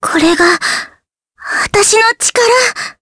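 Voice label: Vox Skill Japanese; Jane; kingsraid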